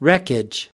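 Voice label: "wreckage", US English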